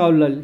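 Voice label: "hablar", Spanish; Kuba; [aˈβ̞lal]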